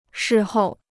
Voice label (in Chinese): "事后", Chinese